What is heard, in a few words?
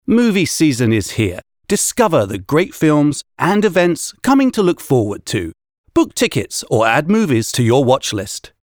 eng